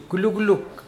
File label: "goose", Mokilese